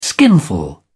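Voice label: "skinful", British English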